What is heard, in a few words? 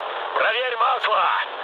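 Russian damaged oil mood med, speech; War Thunder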